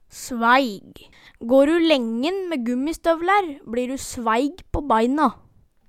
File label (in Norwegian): svæig, Numedalsmål